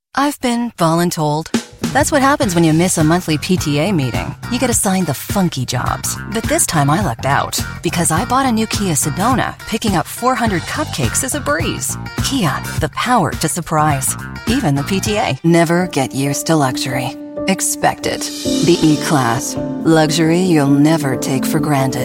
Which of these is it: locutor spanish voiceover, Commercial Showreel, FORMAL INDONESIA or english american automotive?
english american automotive